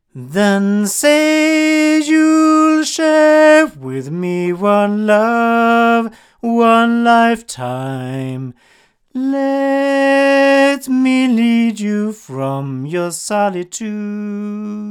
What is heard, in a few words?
omni male